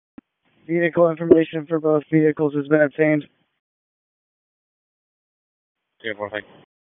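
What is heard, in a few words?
Public Safety Tactical, p25; wake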